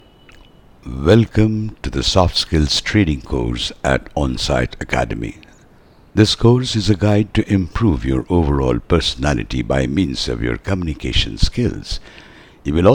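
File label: Soft Skills english, bengali male voice artist; INDIAN ENGLISH; Middle Age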